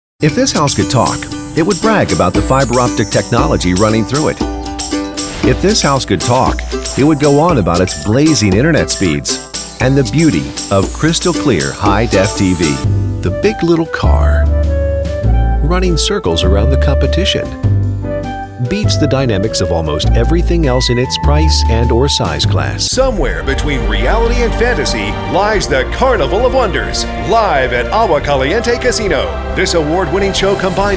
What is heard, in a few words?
Commercial